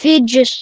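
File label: "fiji", Lithuanian; gcompris; geography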